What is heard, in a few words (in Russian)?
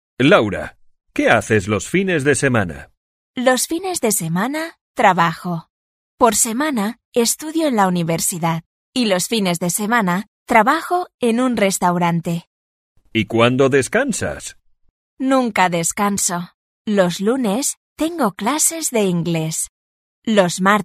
urok dialog